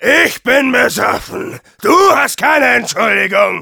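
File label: jeers, German; Demoman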